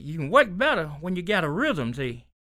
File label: SPOKEN, Blues Soul, TALK